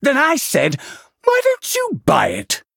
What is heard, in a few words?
Male Venthyr Play